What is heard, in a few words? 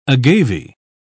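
"agave", British English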